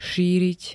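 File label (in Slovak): "šíriť", Slovak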